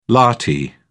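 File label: "lathi", British English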